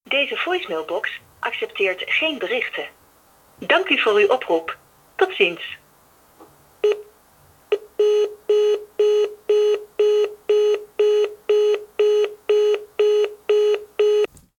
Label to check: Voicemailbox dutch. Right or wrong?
Right